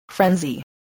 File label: "frenzy", English voice, spelling